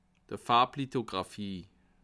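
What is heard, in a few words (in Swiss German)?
"farblithographie", Bas Rhin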